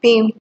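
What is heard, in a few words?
"pem", Nigerian Pidgin, female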